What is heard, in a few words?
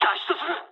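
Japanese bailout mood low; War Thunder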